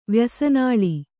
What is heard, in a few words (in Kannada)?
"ವ್ಯಸನಾಳಿ", Kannada